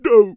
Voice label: Peasant pissed, en